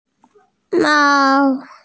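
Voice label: "mao1", Mandarin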